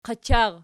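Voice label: "qachar" nominative, Archi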